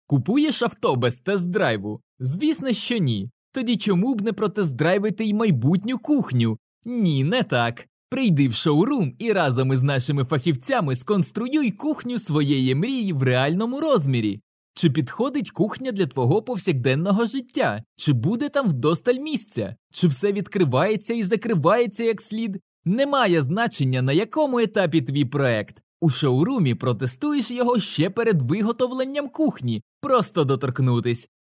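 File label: Ukrainian male voice over, upbeat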